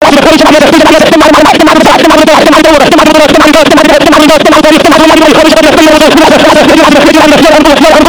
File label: yap session loud